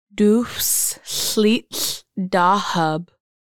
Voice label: Fisherman "dxʷsɬiʔɬdahəb", Lushootseed; winter

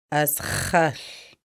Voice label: sick, Lushootseed

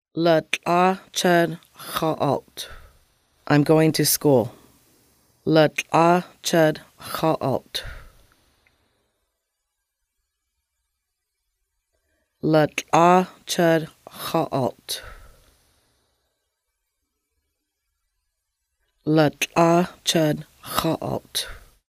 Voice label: i am going to school, Lushootseed; ləƛ̕a čəd x̌alalʔtxʷ

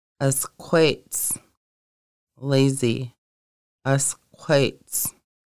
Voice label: lazy, conversational Lushootseed

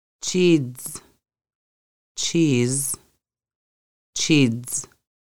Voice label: cheese, conversational Lushootseed

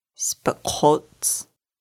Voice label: potatoes, Lushootseed

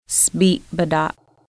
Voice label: "spebadah", Lushootseed

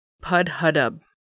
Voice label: "summer", Lushootseed

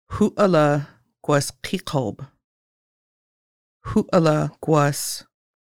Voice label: chance of showers, Lushootseed

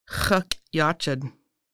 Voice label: Fish spear "x̌əƛ̕yacəd", Lushootseed

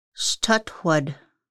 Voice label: bear scətxʷəd, Lushootseed; family activity hunting feasting

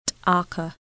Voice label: salal berries, Lushootseed

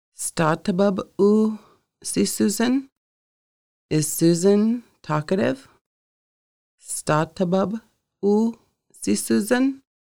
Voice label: Is Susan talkative, conversational Lushootseed

